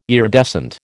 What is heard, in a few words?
"iridescent", American